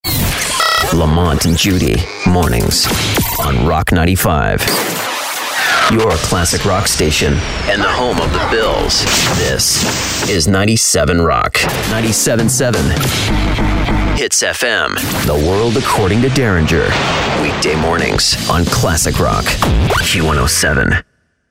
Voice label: Classic Rock, radio imaging, men